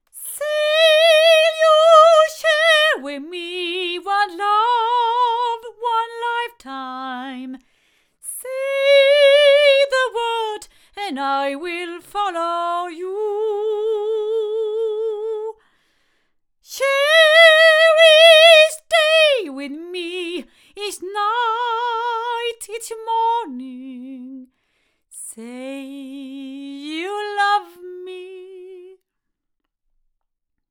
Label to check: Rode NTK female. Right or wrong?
Right